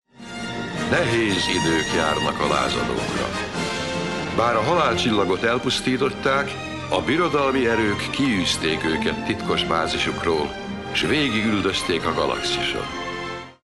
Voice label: narrator; esb